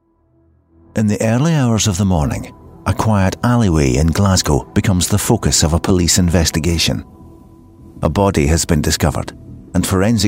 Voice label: narration